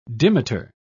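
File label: "dimeter", British English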